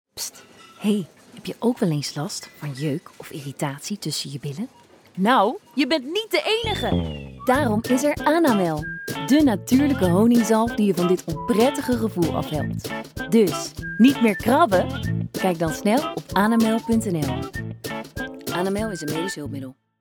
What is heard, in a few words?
Radiocommercial